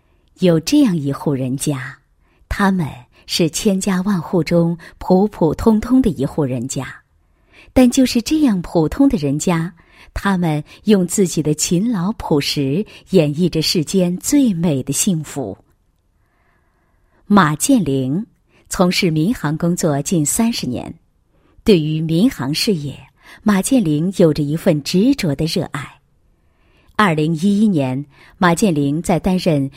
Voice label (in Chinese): 女47-人物; 某空港副总经理马某事迹